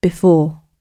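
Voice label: "before", British English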